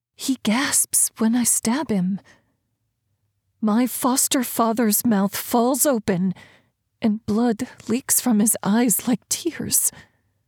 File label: Thriller, 1st Person, Female / Male; Dreaming about murder